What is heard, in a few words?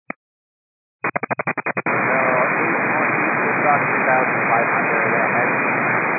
122 925MHz, scanner radio